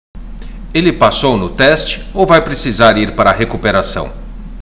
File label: Portuguese Listening; Portuguese Test